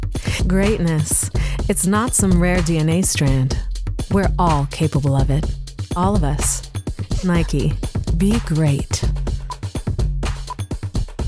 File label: Commercial VO